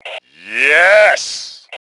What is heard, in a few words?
"Woohoo", Male; T2 Male 02